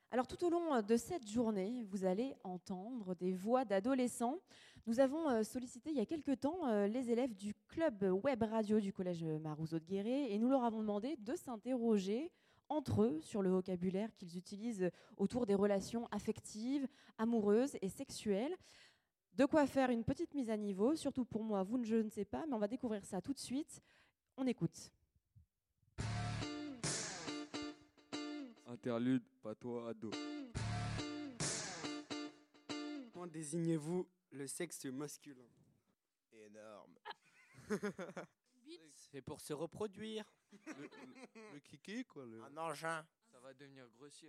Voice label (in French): Patois Ados; sexualites adolescentes a l'ere du numerique